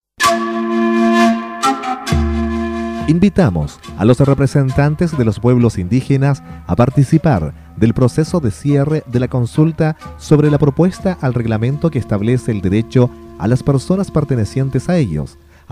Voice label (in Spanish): FRASE INDIGENA SALUD Diaguita; Región de Coquimbo